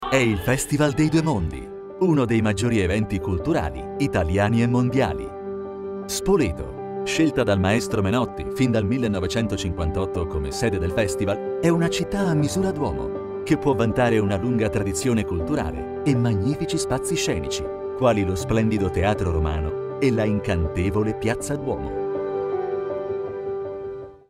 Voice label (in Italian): Promo Festival IT